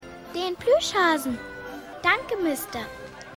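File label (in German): Mädchen; X Factor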